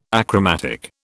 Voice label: "achromatic", British